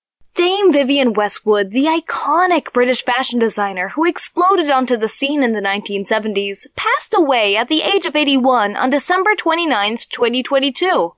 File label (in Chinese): 美式女声